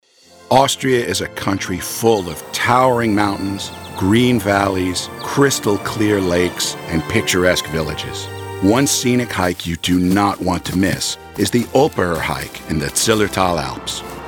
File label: English Narration; Omnivox